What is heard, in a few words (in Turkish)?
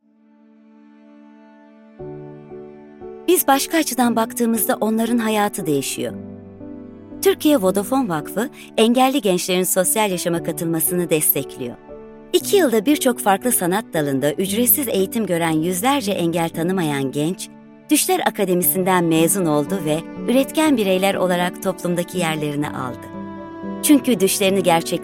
türkçe seslendirme